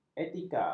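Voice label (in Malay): "etika", Malay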